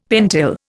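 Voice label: "pentyl", Indian English; Dictionary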